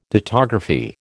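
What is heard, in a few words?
"dittography", American English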